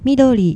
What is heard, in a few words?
"midori", Japanese